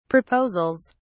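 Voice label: "proposals", British English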